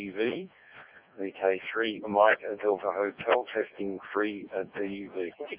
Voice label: decoded speech, 7177KHz